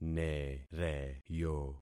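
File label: "nereyo", concatenated syllables; Affixing experiment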